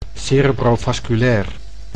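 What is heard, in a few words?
"cerebrovasculair", Dutch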